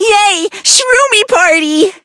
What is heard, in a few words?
skill magic candy, en